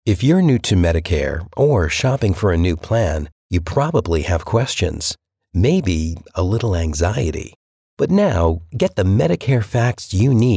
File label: Casual Commercial Narration; Blue Cross